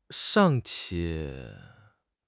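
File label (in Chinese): "尚且", Chinese; HSK6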